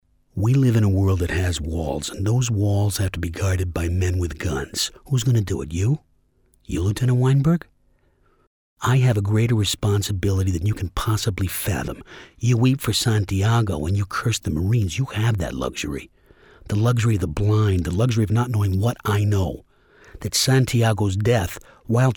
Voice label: Narrative